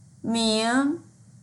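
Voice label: "ming2", Mandarin Chinese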